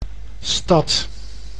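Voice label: "stad", Dutch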